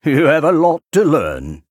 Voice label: Male Human Hero Power; Antonidas; Jaina

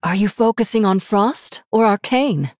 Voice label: Female Human

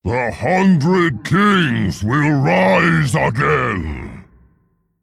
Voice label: Male Mogu Play

